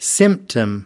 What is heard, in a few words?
"symptom", us